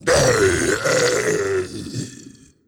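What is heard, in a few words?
Big Death voice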